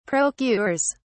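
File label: "procures", English, British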